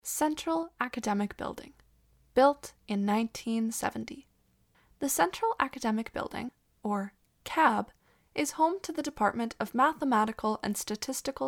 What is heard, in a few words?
Central Academic Building - English; NSO Tour